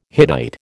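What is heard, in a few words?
"Hittite", American English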